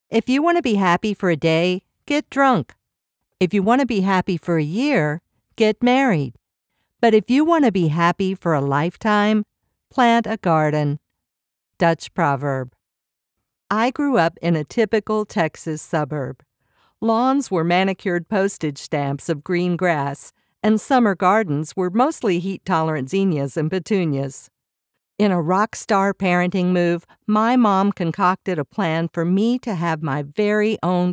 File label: Non-fiction · Clear · Trustworthy; Growing Good Food